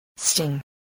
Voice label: "sting", voice

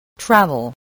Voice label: "travel", voice

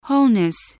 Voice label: "wholeness", voice, english

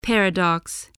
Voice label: "paradox", English, voice

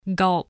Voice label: "gulp", voice

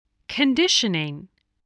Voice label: "conditioning", english

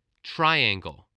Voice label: "triangle", spelling

